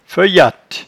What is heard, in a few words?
"feuyatte", patois jura